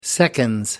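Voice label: "seconds", British English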